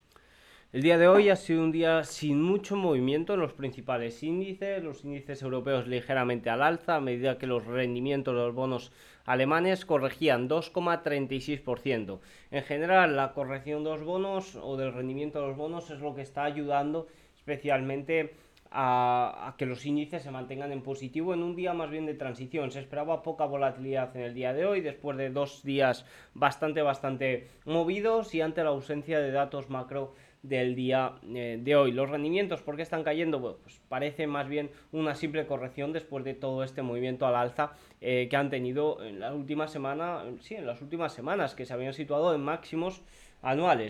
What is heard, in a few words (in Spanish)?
radio; la correcion de los indices ayuda en un dia sin mucho movimiento